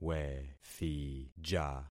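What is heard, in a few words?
"wethija", concatenated syllables; Affixing experiment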